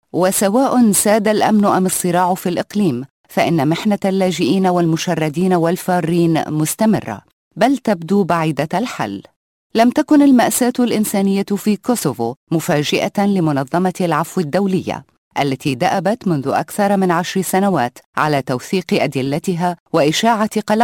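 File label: Arabic, Narration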